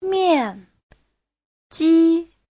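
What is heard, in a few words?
"miànjī", Chinese; Giáo trình Hán ngữ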